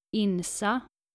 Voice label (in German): "insa", nordische maedchennamen